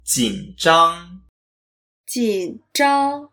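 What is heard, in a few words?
"jin3zhang1" Mandarin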